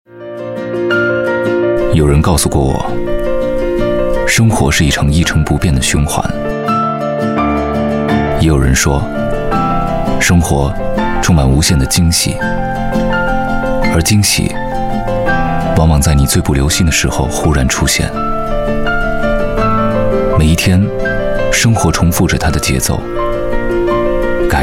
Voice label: chinese voice over male commercial